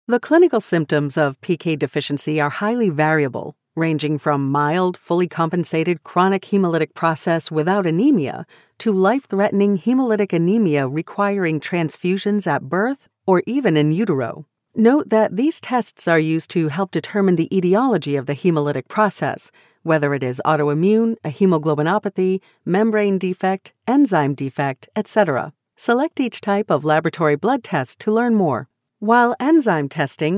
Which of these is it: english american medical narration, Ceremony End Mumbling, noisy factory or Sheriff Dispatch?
english american medical narration